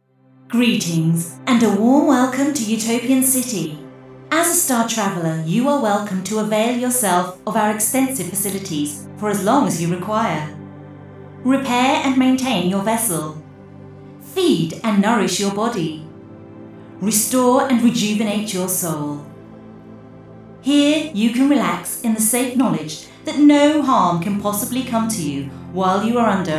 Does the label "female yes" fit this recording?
No